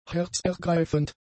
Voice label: "herzergreifend", German; adjectives